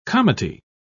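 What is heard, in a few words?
"comity", English, British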